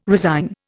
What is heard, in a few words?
"resign", Indian